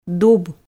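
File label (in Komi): "дуб", Komi, female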